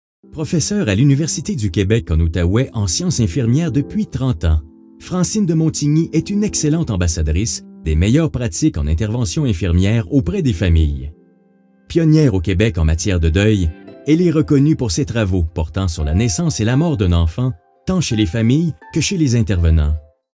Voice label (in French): Extrait Narration; Ordre de Gatineau